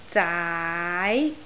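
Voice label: "jaai2", Cantonese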